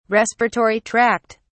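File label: "respiratory tract", British English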